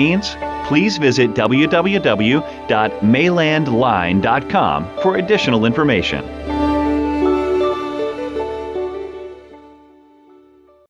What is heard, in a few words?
Voiceover Airport Baggage Claim